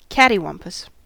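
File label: "cattywampus", US English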